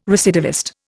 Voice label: "recidivist", Indian